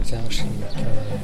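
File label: "revolver", louder, Teotitlán del Valle Zapotec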